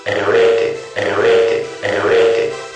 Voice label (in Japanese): ナレーション「EMURATED、EMURATED、EMURATED」